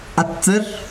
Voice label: caliente, yanesha

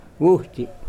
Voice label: Nawat palabras camino; pipil

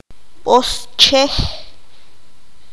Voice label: "burro", qanjobal

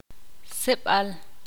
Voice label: "derecho", ixil

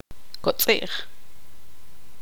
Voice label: "flor", tzutujil

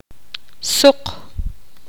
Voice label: poqomam ser delicioso

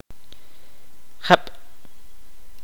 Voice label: "lluvia", Kaqchikel

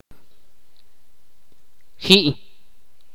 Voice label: "arena de rio", Ch'orti'

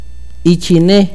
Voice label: espalda, akateko

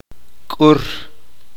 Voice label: "cortar", uspanteko

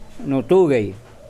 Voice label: Nawat palabras nombre; pipil

